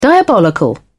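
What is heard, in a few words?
"diabolical", British English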